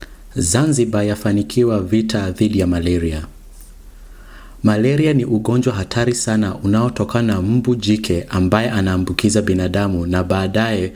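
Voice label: Swahili, general; GoLocalise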